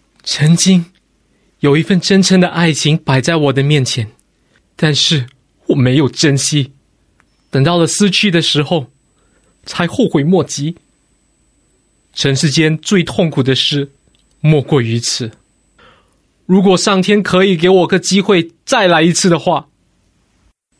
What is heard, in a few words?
Mandarin Voice Sample, Male; China